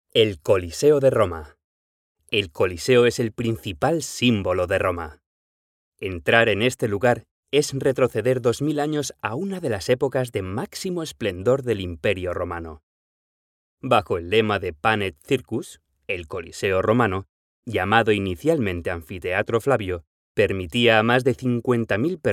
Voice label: Audioguia, Audio Guide; Audio Guide - The Colosseum in Rome; El Coliseo de Roma

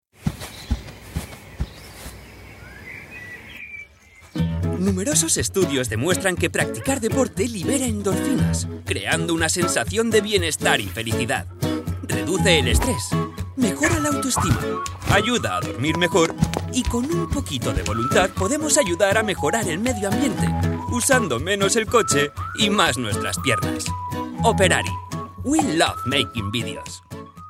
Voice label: Video Animacion; La felicidad y el deporte

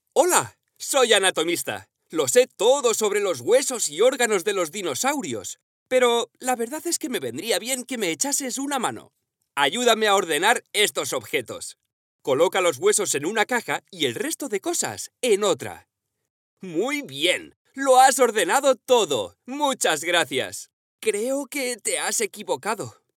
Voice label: App para Ninos Interpretacion Personaje, voz en off; App for Kids - Performed

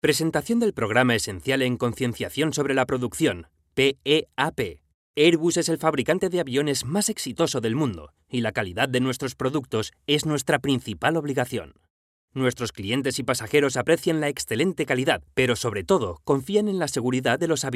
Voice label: E-Learning Tono Vivo dinamico y rapido, E-Learning - Lively, Dynamic, and Fast-paced Tone

